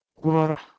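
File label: "gwerh2", Proto-Indo-European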